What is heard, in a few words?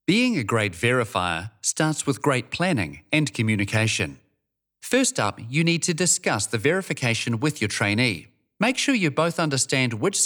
NZ Male Voice Talent